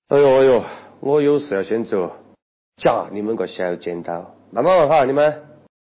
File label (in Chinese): man; guizhou